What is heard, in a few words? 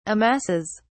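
"amasses", English, British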